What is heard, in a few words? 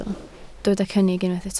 "cynnig", Welsh